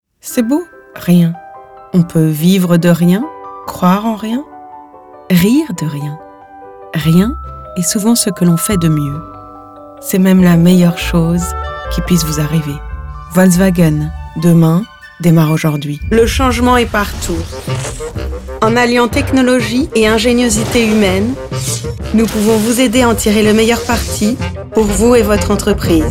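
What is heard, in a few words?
FR General, French; GoLocalise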